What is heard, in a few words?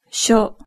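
"sho", Japanese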